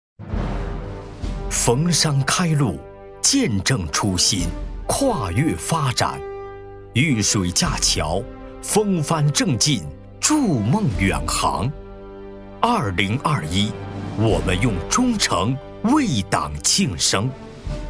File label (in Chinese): 男 颁奖 大气浑厚